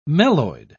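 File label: "meloid", British English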